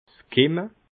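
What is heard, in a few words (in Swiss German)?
"kimme", Alsatian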